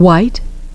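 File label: "white", English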